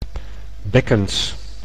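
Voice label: "bekkens", Dutch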